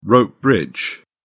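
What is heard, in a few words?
"rope bridge", British English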